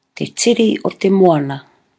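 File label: "te tiri o te moana", Māori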